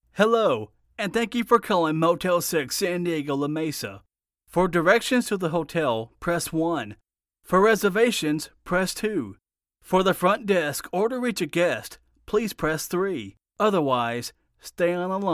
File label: IVR phone message